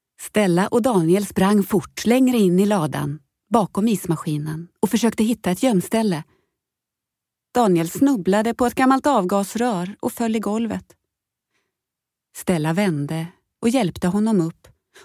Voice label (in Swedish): Ljudbok